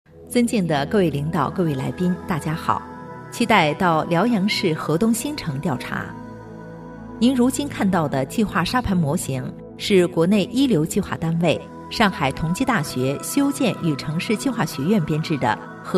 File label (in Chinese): 女 政府课件（亲和）; 成熟